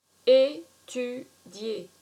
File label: "Etudier", french